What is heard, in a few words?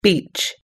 "beach", us-english